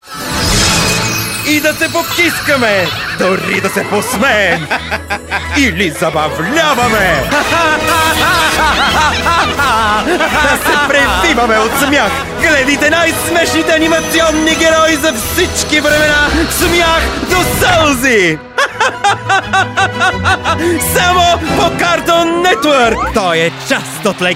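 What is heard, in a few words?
Bulgarian, Commercial; GoLocalise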